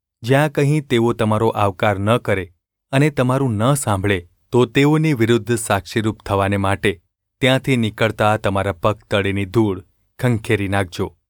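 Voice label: gu ulb mrk, Gujarati; mrk 6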